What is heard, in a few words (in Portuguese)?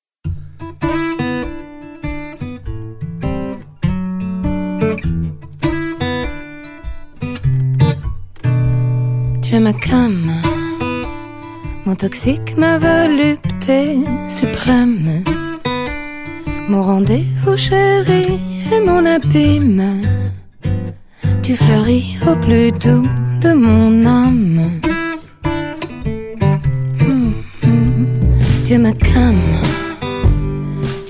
Amizade Colorida F